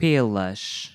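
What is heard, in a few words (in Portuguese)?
"pelas", português europeu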